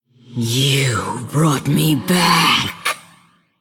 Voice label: Female Banshee Play